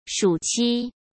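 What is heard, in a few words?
"暑期", Mandarin Chinese; hsk; chinese flashcards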